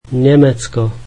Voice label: slovak Germany